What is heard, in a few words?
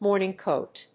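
"morning coat", English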